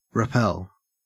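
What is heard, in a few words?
"repel", Australian English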